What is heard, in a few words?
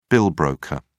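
"bill broker", English, uk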